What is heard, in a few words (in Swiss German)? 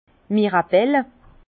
"mirabel", Bas Rhin; vin; mirabelle